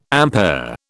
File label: "ampere", British